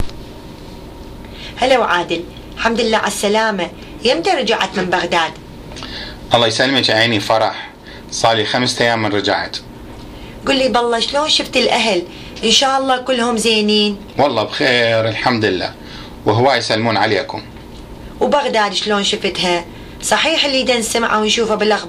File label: Dialogue, Iraqi Dialect; about Iraqi artists assoc